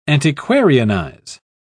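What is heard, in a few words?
"antiquarianize", British English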